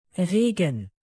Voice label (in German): regen, native; alphabet de